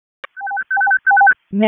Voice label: WC EMS ALERT, p25; wake